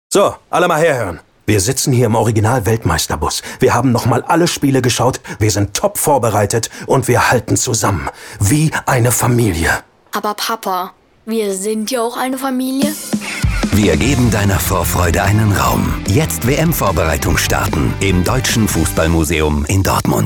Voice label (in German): Funkspot Motivationsrede; Deutsches Fussballmuseum Dortmund